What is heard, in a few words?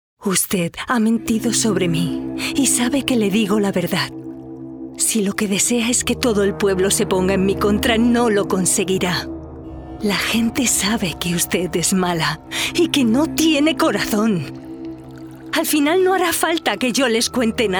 Spanish movie trailers